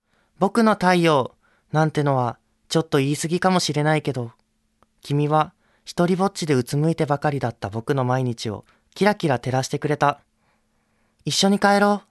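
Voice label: Voice Sample Narration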